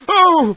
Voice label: fear; Source Engine; bshift; rosenberg